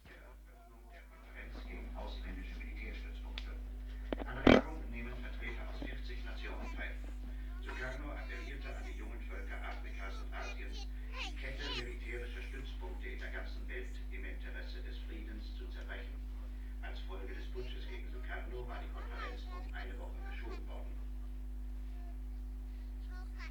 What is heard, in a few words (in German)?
Nachrichten